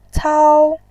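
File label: "cao", Mandarin Chinese, first tone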